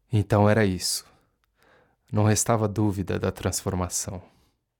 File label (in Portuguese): NARRACAO